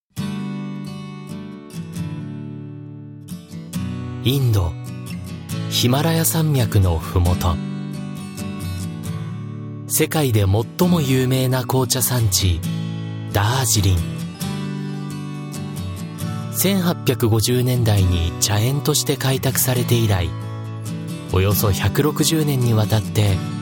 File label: japanese narration